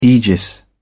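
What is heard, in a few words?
"aegis", British English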